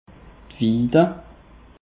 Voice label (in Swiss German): "wiid", alsace; peche